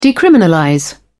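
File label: "decriminalize", British English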